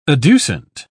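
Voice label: "adducent", British English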